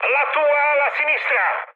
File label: left wing mood high, speech it; War Thunder